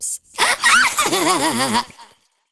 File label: Girl Idle; Dialog; Warframe; Zariman; Skitter Girl